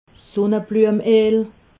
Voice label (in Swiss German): "sunnebluemeel", alsace